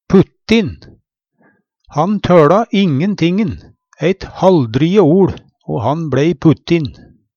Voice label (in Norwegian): puttin, Numedalsmål; Han tøLa ingen ting, eit halldriji oL, o han blei puttin.; Adjektiv